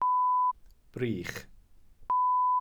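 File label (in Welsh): "brych", Welsh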